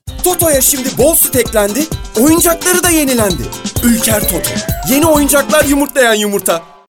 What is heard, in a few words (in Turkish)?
enerjik tempolu; seslendirme